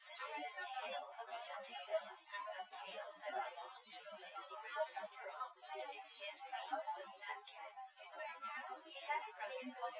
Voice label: female; SiSEC08; SiSEC underdetermined